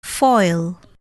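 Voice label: "foil", English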